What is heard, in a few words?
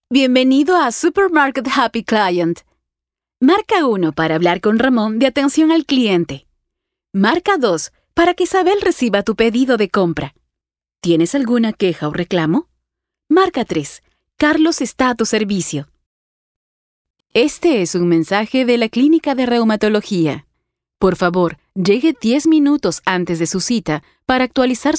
ivr message on hold spa